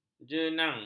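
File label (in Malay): "jenang", Malay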